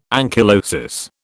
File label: "ankylosis", British